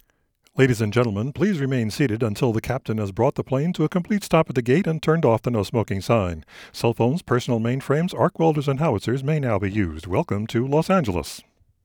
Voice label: flight Attendant voice